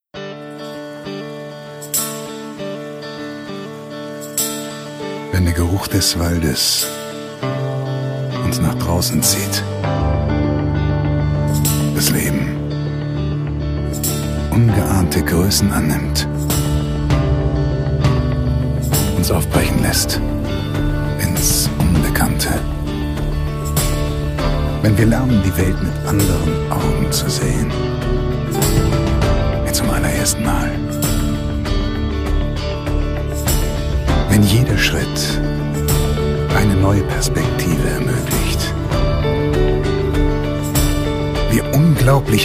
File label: male german comercial; ideasonora